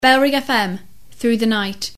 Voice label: female, no bed; bfm RSL 1994; jingles; bfm through the night